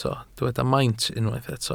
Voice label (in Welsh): "maint" Welsh